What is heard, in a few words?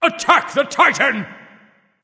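vox attack, male; smite; osiris; voicepack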